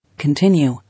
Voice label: "Continue", English

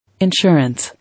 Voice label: "Insurance", English

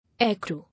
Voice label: "Aircrew", English

